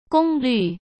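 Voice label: "功率", Mandarin Chinese; hsk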